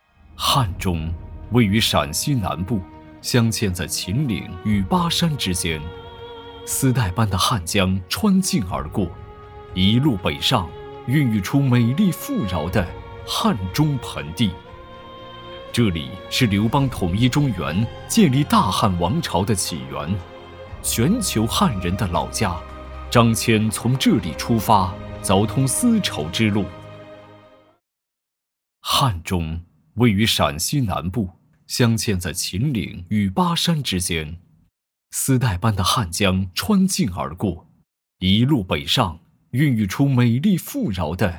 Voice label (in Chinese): 男 柔和宣传 汉中